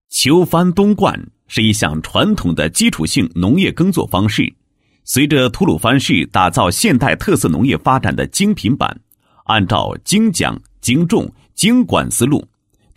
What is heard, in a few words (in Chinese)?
男 解说词; 秋翻冬灌农机深松